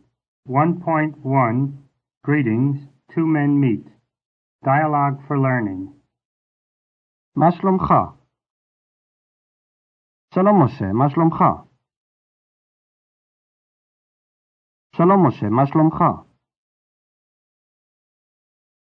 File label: hebrew; fsi